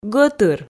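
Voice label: "гӧтыр", Komi, female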